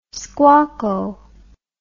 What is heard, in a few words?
"squacco", English